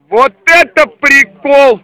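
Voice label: "vot eto prikol!", Russian; stamm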